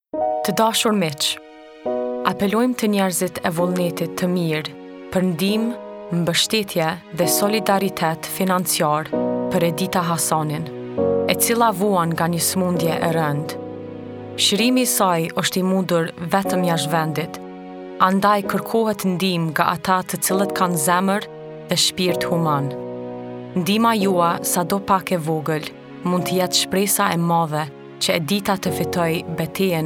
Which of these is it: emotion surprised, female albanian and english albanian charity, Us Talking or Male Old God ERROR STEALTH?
female albanian and english albanian charity